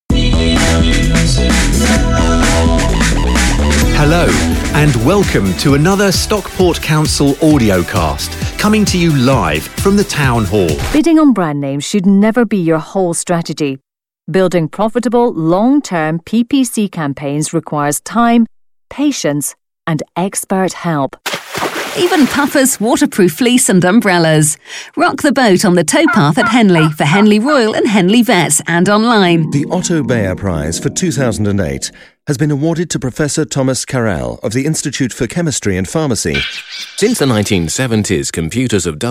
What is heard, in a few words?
Professional Voice Overs